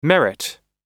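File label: "merit", American English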